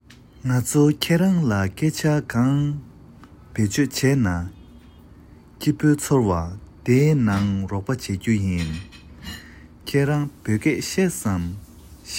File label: tibetan human